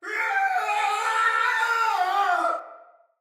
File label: Death Fire Soldier Male; Battle; Barks; Dialog; Sinecure Soldier Male A